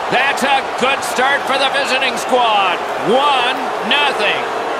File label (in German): goal kommentar sprecher; National Hockey League 2019; gamesoundopedia